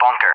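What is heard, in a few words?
"bunker", English; Target; DCS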